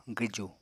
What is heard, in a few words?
"gwju", Apatani